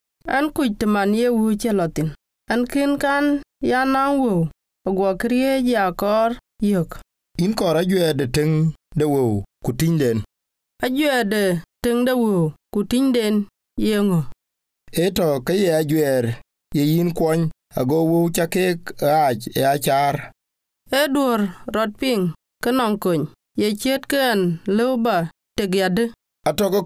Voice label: household budgets dinka